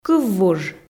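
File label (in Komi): "кыввуж", Komi, female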